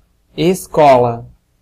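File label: "school", Brazilian Portuguese; gcompris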